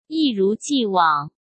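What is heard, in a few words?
"一如既往", Mandarin Chinese; hsk; chinese-flashcards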